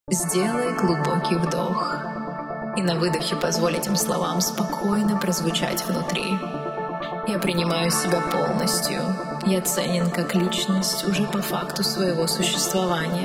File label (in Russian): muzyka dlya meditacii muzyka dlya sna muzyka dlya relaksacii affirmaciya; vmk studio; samoocenka